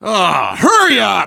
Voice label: hurry up, voice, biker